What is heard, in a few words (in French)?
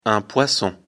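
"poisson", French; afrikalan; lecture fr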